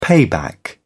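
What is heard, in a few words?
"payback", British English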